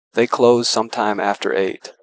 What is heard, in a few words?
speech est